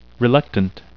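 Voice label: "reluctant", English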